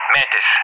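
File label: "Mantis", English; Callsign; DCS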